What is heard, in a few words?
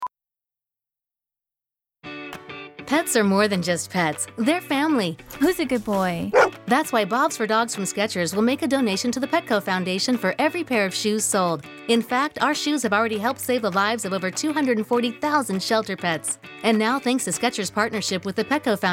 English; Family